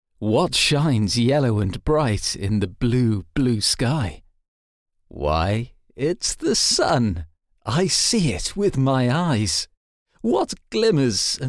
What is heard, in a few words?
english british audiobooks